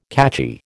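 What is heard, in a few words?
"catchy", American English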